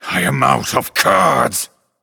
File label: Male Night Elf